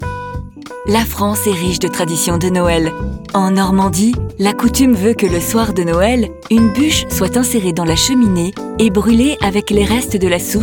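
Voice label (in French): voice over, pub; INDIGO NORMANDIE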